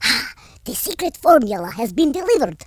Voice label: drop, Russki